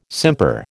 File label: "simper", American